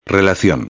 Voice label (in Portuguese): "relacion", espanhol